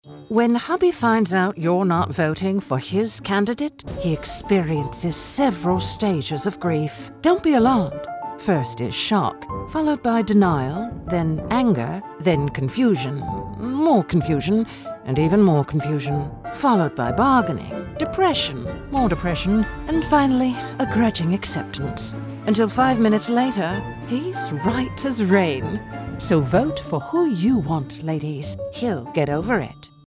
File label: British Political Sassy Funny